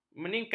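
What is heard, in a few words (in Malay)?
"meningkat", Malay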